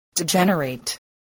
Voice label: "degenerate", English